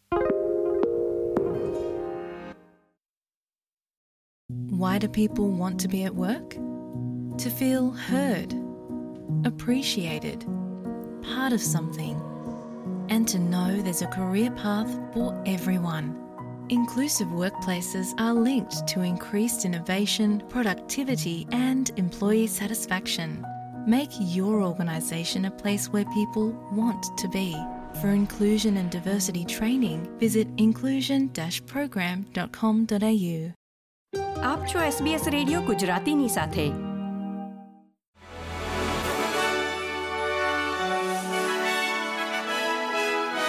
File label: gujarati news bulletin; sbs